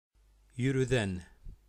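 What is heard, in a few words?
"Йүрүҙән", Bashkir; Юрюзань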